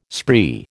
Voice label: "spree", American